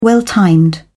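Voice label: "well-timed", British English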